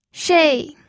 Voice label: "shei4", pinyin